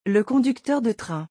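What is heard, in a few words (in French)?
"le conducteur de train", French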